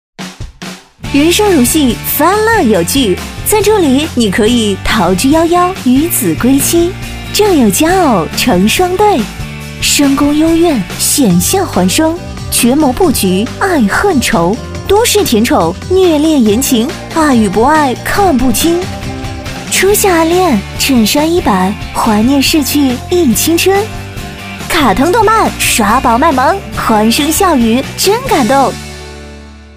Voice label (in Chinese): 女 节目预告